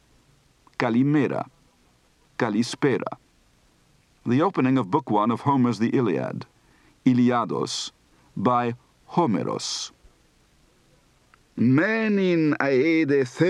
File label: Greek, Accent